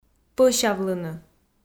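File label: "пӧсявлыны", Komi, female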